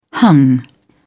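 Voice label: "hung", English, British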